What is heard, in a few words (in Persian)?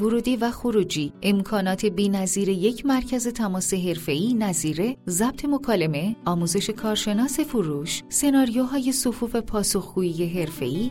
تلفن گویای مجازی